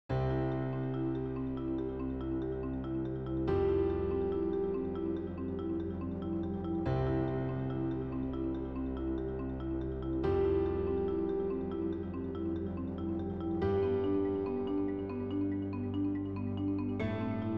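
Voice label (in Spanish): corporativo España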